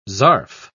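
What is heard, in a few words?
"zarf", British English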